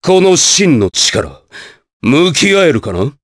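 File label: Vox Skill, voices, Japanese; Nicx; kings raid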